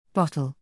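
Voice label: "bottle", English word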